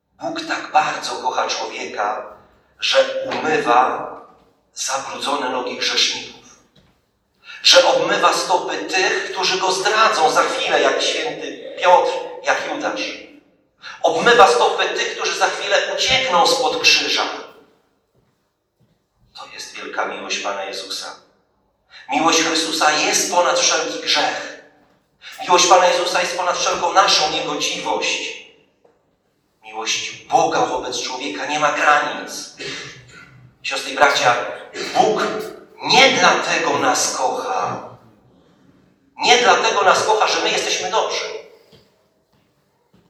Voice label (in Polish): Kazanie; Wielki Czwartek